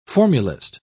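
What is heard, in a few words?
"formulist", English, British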